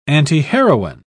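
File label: "antiheroine", British English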